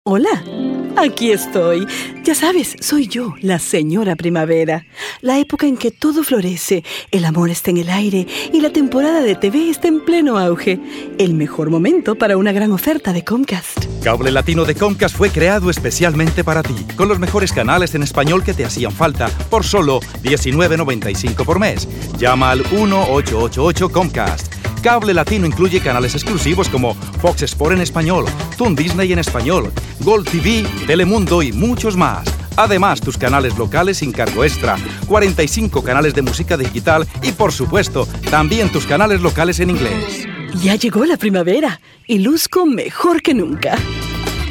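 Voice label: Radio commercial Spanish; Translation into Spanish; Voice-over talent; Bilingual supervisor; Magnum Group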